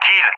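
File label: "kill", English; Messages; Allied Flight; DCS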